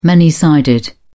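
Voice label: "many sided", English, British English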